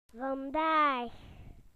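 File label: "today", British English; PictureDictionary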